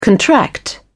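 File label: "contract out", British English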